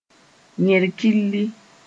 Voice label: "yẽr-killi", moore